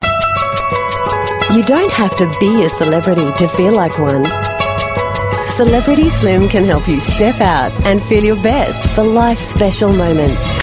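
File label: AU English, Australian English; Celebrity Slim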